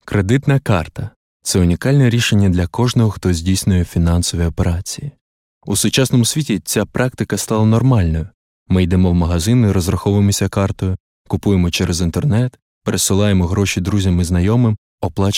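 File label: Ukrainian, corporational; GoLocalise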